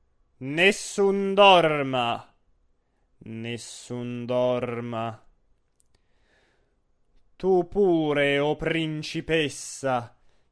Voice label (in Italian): espressivo; Turandot